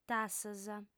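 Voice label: "tassas", lingua sarda, female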